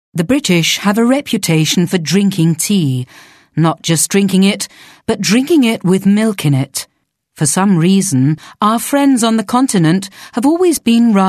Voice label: BBC English